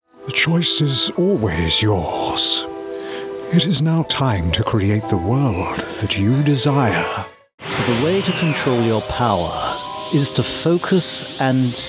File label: wise wizard voices